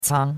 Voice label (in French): "cang1", chinois; Cours-de-Chinois